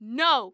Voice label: "No", Human Female Angry

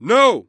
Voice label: "No", Human Male Loud

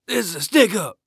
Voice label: Human Cowboy Say "Stick Up"

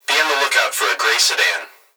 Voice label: Human Young Male Voice Police Dispatch; Emergency

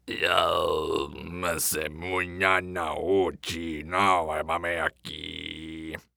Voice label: Horror Scary Human Male Weird Voices Tribal Chief

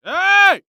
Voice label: Human Male Yell Hey

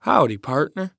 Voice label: Human Cowboy Say "Howdy Partner" Voice

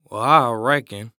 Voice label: Human Cowboy Say "I Reckon"

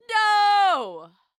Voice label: "No", Human Female

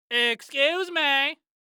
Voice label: Cartoon Voice Excuse Me

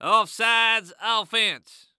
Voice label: Sports Human Voice Male Football Referee; Off Sides Offense

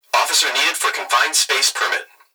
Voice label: Human Young Male Voice Plant Security Dispatch; Emergency

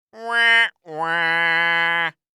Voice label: Cartoon Voice Wah Wah Sarcastic

